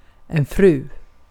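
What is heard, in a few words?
Swedish vocabulary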